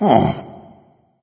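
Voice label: sigh, dragon; fafnir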